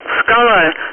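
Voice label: Speech Sound Russian Common air traffic control Callsign; ATC; Skala; DCS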